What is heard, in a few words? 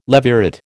"levirate", American